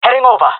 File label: jungle male; player vo; Counter Strike Model Pack; CS:GO